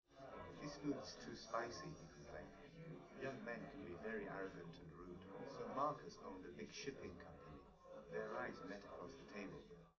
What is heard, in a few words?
male, live rec; SiSEC11; underdetermined